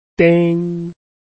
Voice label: "ding1", Chinese

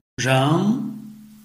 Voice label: "reng2", Chinese

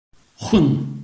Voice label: "hun4", Chinese

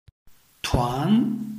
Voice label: "tuan2", Chinese

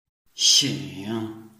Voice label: "xing3", Chinese

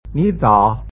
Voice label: "nizao", Chinese

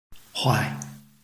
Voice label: "huai5", Chinese

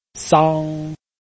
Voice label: "sang1", Chinese

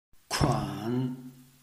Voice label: "kuan3", Chinese

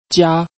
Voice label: "jia1", Chinese

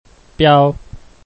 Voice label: "biao1", Chinese

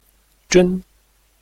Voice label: "jun1", Chinese

